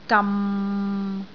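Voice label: "gam6", Cantonese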